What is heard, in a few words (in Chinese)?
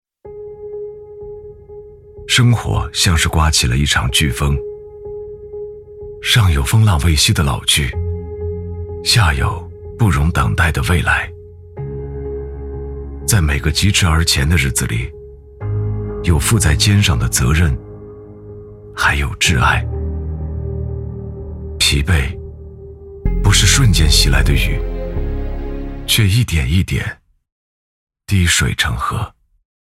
男 专题